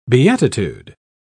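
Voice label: "beatitude", British English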